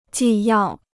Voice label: "纪要", Chinese